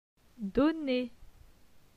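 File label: "Donner", French; phonetique; les consonnes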